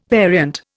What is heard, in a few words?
"variant", Indian